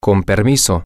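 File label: get by, spanish, male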